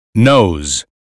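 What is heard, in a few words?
"nose", American English; 英语音标发音（剑桥版）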